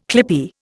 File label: "clippie", Indian